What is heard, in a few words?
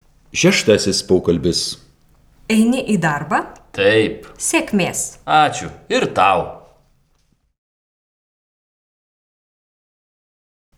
Dialog